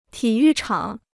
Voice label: "体育场", Chinese

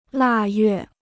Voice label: "腊月", Chinese

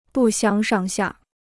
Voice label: "不相上下", Chinese

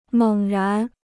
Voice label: "猛然", Chinese

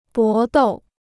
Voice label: "搏斗", Chinese

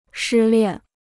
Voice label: "失恋", Chinese

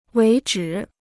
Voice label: "为止", Chinese

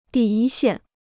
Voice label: "第一线", Chinese

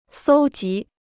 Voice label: "搜集", Chinese

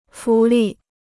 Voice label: "浮力", Chinese

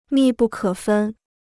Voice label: "密不可分", Chinese

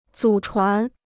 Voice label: "祖传", Chinese

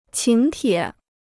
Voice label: "请帖", Chinese

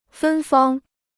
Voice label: "芬芳", Chinese

